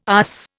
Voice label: "USS", Indian